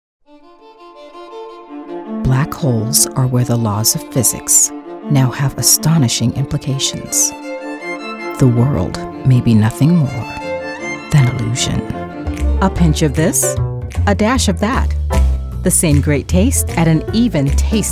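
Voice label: Voice Over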